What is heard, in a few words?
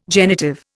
"genitive", Indian